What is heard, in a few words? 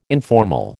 "informal", American